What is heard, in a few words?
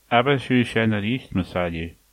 say again, manx